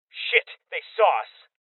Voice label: Male Young